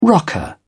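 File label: "rocker", British English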